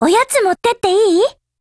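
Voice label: Japanese, Voice; OPERATION BEGIN; GF Alarm; SPAS12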